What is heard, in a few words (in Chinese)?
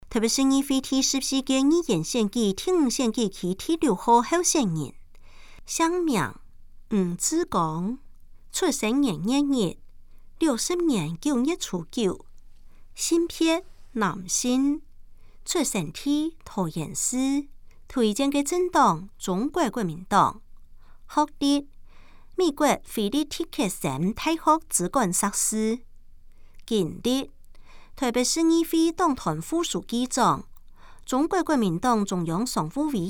客語; 臺北市; 有聲公報; 市議員; 臺北市議會第14屆議員第5選舉區 (中正、萬華區)候選人介紹